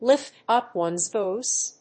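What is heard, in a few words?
"lift up ones voice", English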